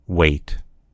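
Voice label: "weight", American English; gcompris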